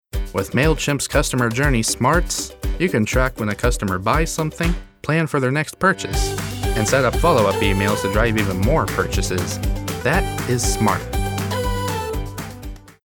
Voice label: Matter of Fact